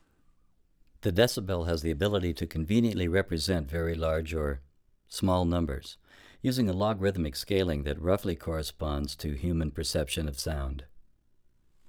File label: Narration Formants Up; Harmonics Formants Demos; Formant Changes